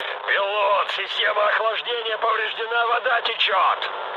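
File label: Russian damaged water mood med, speech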